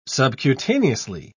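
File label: "subcutaneously", British English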